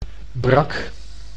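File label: "brak", Dutch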